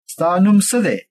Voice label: name, pashto; ستا نون څه; staa num tsa dhe?